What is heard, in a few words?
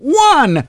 count, French; Birthday Party Bash; Wii